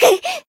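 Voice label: damaged, Japanese; azami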